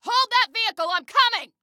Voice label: Need Hold Vehicle, Female